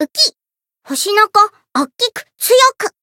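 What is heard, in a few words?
Voice prince growth, Japanese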